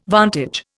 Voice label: "vantage", Indian

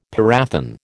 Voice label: "parathion", American

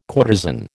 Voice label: "courtesan", American

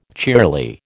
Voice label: "cheerly", American

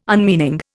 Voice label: "unmeaning", Indian

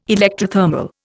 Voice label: "electrothermal", Indian

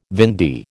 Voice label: "vendee", American

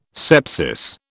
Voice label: "sepsis", British

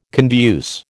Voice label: "conduce", American

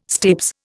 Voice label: "stapes", Indian

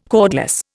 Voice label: "cordless", Indian

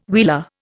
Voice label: "wheeler", Indian

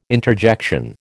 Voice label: "interjection", American

